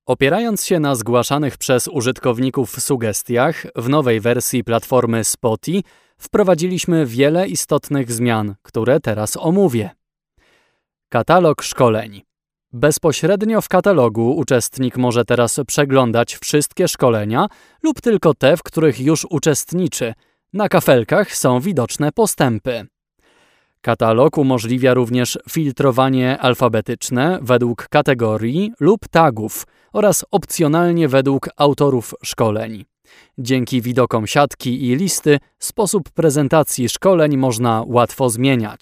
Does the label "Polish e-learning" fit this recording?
Yes